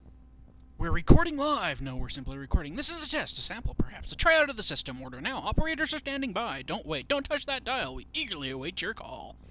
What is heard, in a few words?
Announcerment!